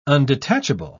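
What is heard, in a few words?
"undetachable", British English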